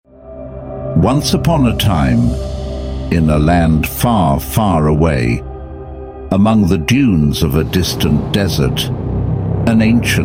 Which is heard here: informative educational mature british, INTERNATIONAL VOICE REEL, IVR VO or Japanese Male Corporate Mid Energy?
informative educational mature british